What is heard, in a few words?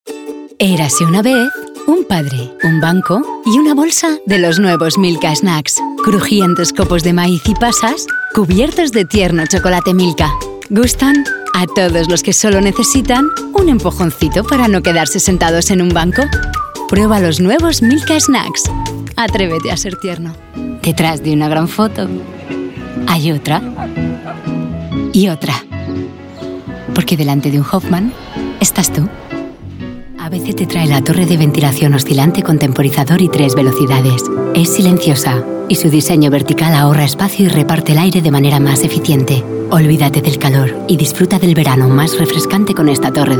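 European Spanish General Voice Reel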